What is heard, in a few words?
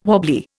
"wobbly", Indian